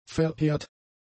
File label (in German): "verheert", German; adjectives